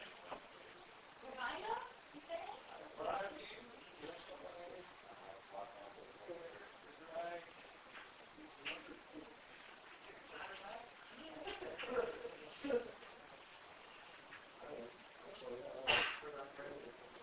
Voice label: Male Voice whispering, INSIDE; Olympus DVR; Case 021 Zepp Home; Laundry Room

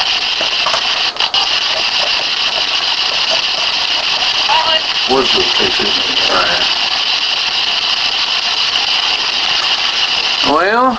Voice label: Home Unexplained Voice on the Franksbox

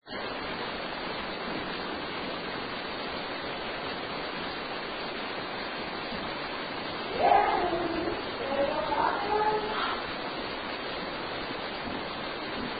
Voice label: FEMALE VOICE; Opera House Stage

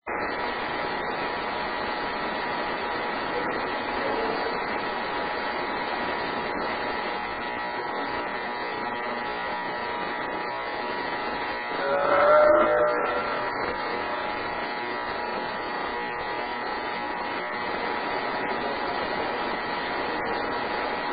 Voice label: male v; Fuel Building; 2nd Floor; Art Room

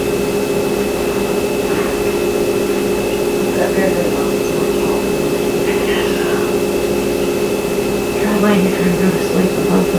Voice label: Kitchen Unexplained whisper (I know) AMPLIFIED

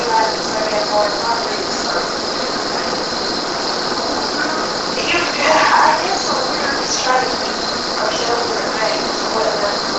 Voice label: Master Bed Room Very Low Whisper (Hey) AMPLIFIED

